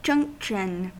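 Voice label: "junction", American English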